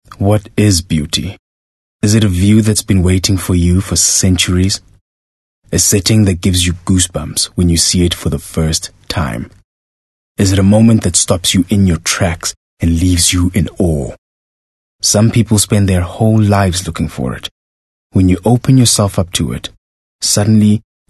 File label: English Soft Sell